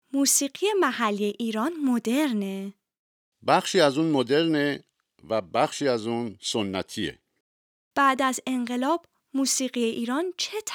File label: Dialogue lesson Farsi